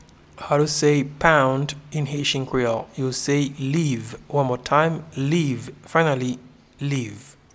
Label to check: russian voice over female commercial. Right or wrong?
Wrong